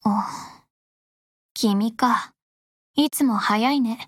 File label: VO MORNING